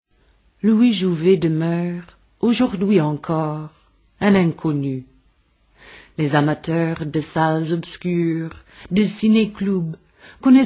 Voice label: kommentar franz, frauen aus aller welt

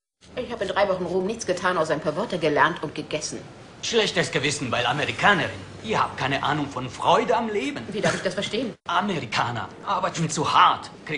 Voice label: synchrondeutsch, maenner

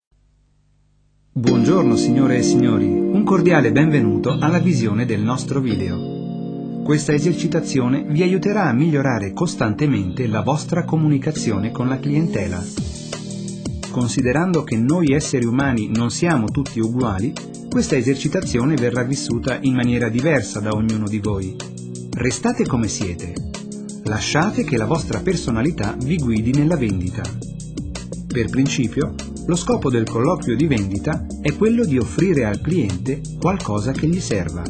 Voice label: kommentar ital, maenner aus aller welt

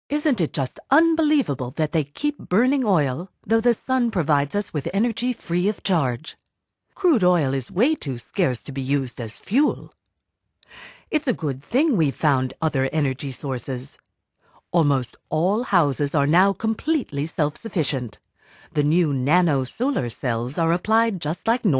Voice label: Sachtext Museum, frauen

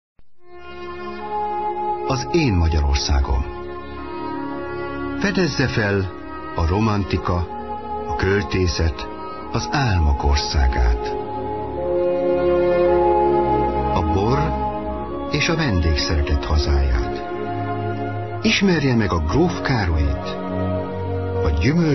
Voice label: tv spot wein, maenner

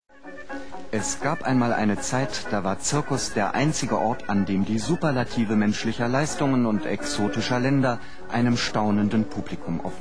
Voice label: sprachprobe, maenner

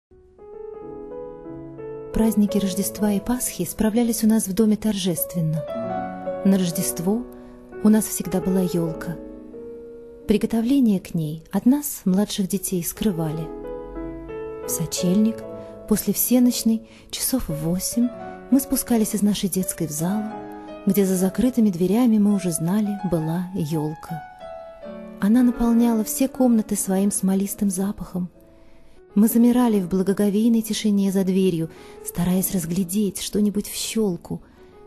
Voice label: russisch erzaehlung, frauen

